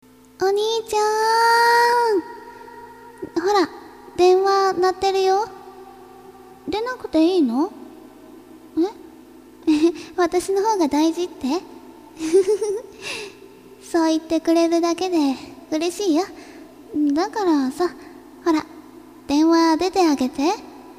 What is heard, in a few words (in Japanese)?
imouto call